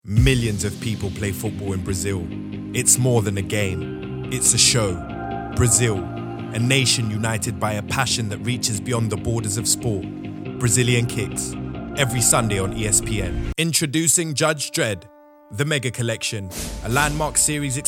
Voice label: Commercial